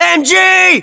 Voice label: US threat mg, battlechatter, english

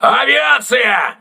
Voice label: voice message air alert, crew ships russian

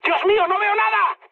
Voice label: searchlite, mood high, speech; War Thunder

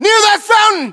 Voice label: US landmark near fountain, battlechatter, english

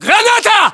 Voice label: inform incoming grenade, battlechatter, ru

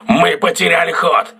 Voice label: voice message lost propulsion, russian

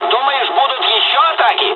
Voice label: dialog, dialogs russian; War Thunder

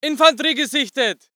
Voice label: voice ger infantry

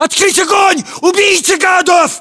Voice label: order attack infantry, battlechatter, ru; localized english

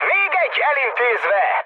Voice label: player killed enemy, mood high, speech hun

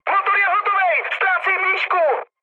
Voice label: damaged engine, speech cze, mood high

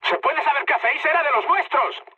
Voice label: player killed ally, mood high, speech

